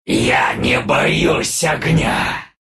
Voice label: death, ru; Clinkz; dota2

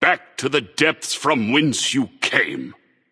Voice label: Taunt Directed; Smite; Wise Wizard Merlin; Persephone

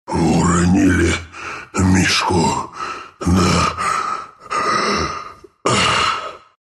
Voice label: death, ru; Ursa; dota2

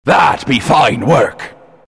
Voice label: Announcer compliment fine work

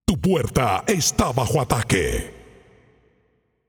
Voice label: Announcer Match Under Attack Gate; paladins

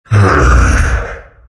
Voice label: big happy; undying

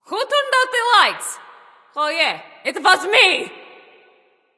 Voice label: Taunt Directed; Scarlet Coven; Ra

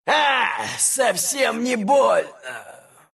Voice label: death, ru; dota2

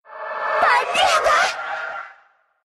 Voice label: win, ru; dota2

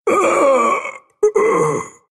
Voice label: death, ru; dota2

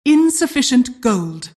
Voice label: announcer generic alert; Vo announcer ann custom

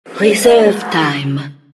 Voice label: announcer; Dota 2; diretide 2012; time reserve

